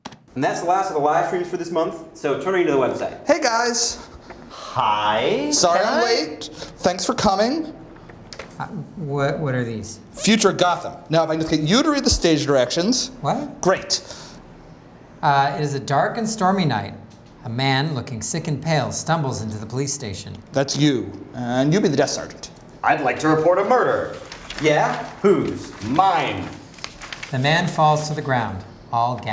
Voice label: Yamaha Dugan Automix